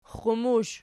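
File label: "xxumush" nominative, Archi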